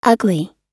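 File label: "ugli", US English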